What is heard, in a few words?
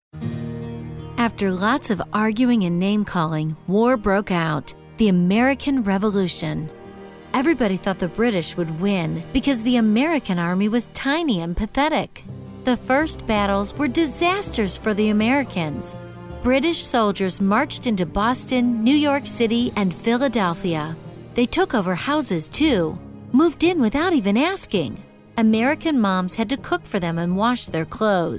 Educational e-learning